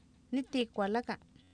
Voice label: ItiikwalAka, Oapan minimal pairs female; Oapan minimal pairs 1998